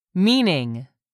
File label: "meaning", English; 3000 words